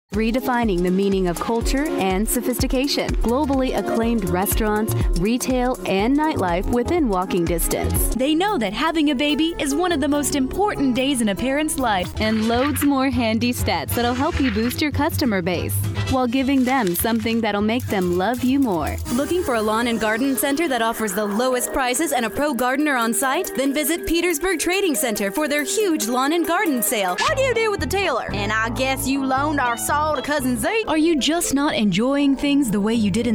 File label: Commercial Female